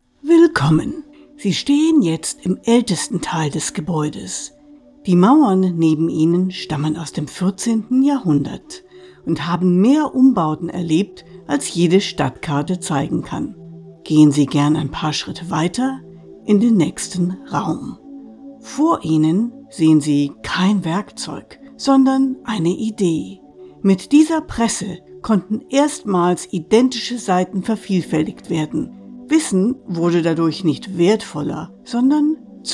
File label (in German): Museum German